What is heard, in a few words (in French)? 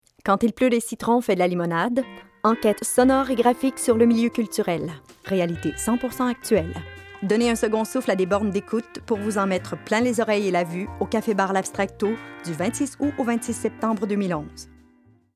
Pub Radio, « Quand il pleut des citrons fais de la limonade »; CFME